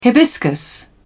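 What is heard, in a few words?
"hibiscus", English, British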